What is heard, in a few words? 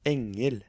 "Engel", Norwegian